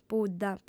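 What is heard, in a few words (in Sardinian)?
"pudda", female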